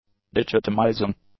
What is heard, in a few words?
"dichotomizing", english; adjectives